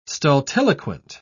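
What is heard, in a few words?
"stultiloquent", English, uk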